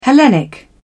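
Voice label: "hellenic", British English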